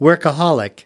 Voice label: "workaholic", us

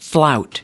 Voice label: "flout", us

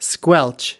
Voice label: "squelch", us

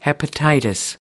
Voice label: "hepatitis", us

